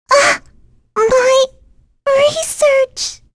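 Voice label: Vox Dead, English; Annette; kings raid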